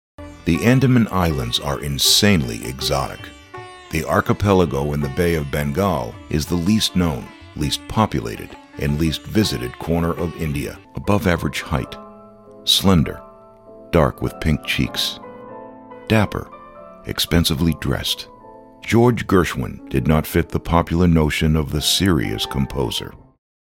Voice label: Narrations